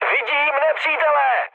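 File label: enemy detected, Czech, mood med; War Thunder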